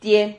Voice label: "tie", Silabas

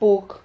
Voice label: "pug", Silabas

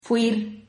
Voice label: "fuir", Silabas

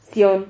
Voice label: "zion", Silabas

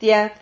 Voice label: "tiad", silabas

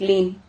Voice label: "klin", Silabas

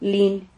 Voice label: "lin", Silabas

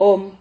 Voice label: "hom", Silabas

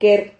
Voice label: "quer", Silabas

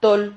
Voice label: "tol", Silabas

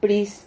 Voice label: "pris", Silabas

